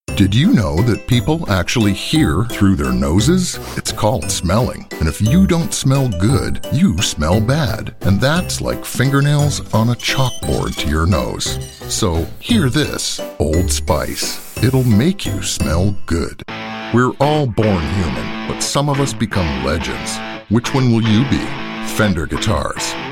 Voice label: Commercials